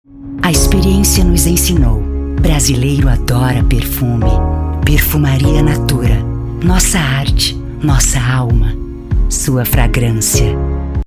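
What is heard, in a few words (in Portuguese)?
Português - Brasileiro, Voz Padrão - Grave, Feminino; NATURA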